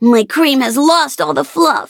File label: defeat, en; Cookie Run